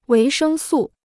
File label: "维生素", Chinese